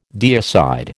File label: "deicide", American